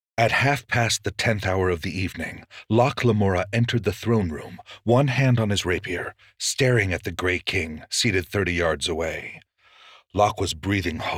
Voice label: Fantasy, audiobooks; Lies of Locke